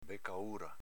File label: "becaura", dialetto veneto